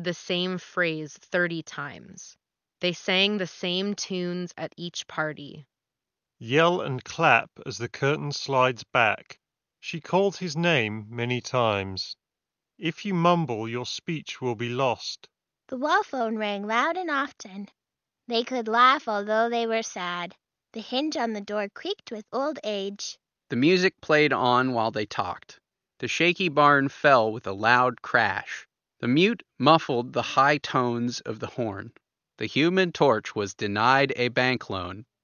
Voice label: Logitech Pro X2 Lightspeed Ideal microphone sample